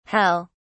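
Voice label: "hel", British English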